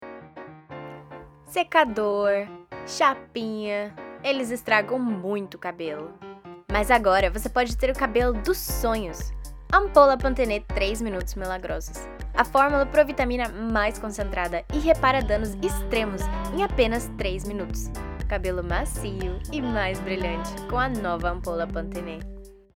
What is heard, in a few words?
Portuguese Commercial